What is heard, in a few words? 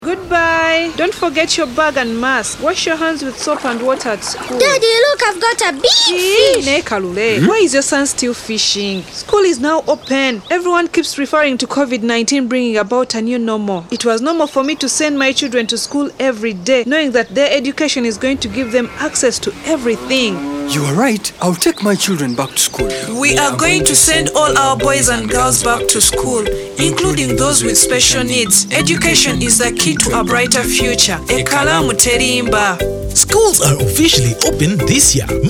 radio English